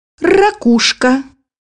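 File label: "seashell", Russian; bukvar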